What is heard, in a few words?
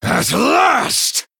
Male Night Elf Attack